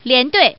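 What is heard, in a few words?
Woman, consecutive pairs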